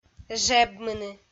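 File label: "жебмыны", Komi, female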